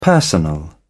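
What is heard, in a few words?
"personal", British English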